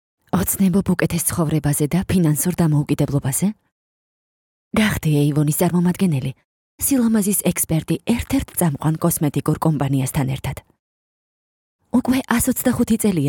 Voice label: Georgian